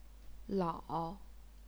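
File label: "lao", Chinese, third tone; 老; 栳; 铑; 佬; 潦; 姥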